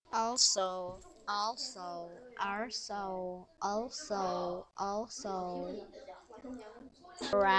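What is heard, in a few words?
"also", spoken word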